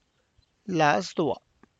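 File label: Tlacochahuaya Zapotec; my heart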